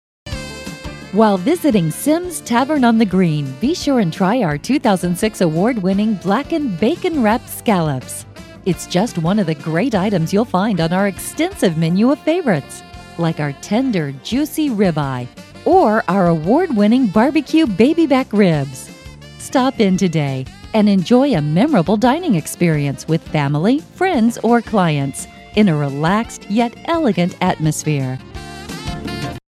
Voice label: restaurant, female voices; audio on hold